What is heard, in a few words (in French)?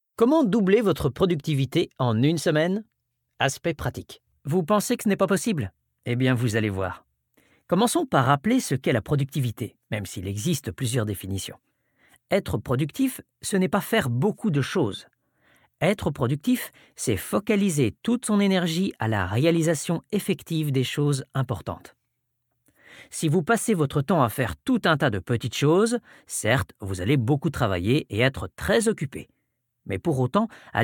extrait page audiobook